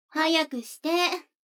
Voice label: 女の子台詞, ASMR

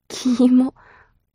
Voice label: mob女子台詞

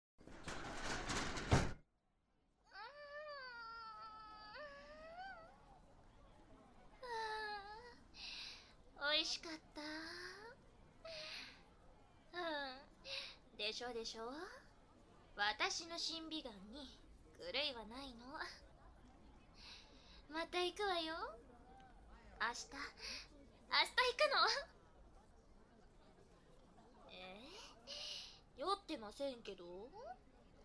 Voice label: 車の中で耳舐め手コキ

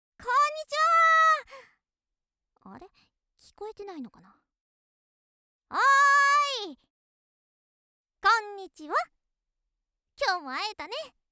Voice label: 元気JK こんにちは

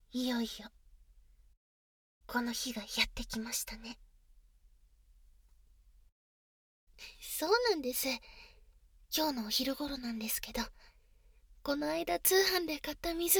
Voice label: シャンプーをしましょう, ASMR

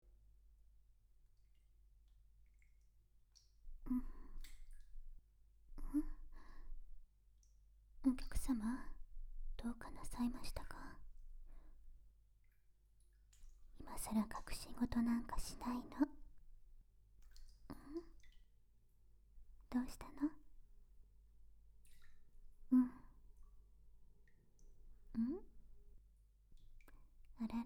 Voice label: 秘密のお風呂マッサージ, ASMR

